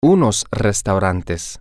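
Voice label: some restaurants, Spanish, male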